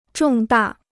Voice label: "重大", Chinese